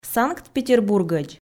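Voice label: "санкт-петербургӧдз" Komi, female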